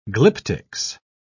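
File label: "glyptics", English, British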